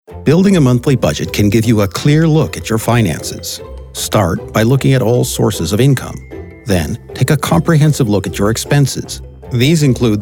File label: eLearning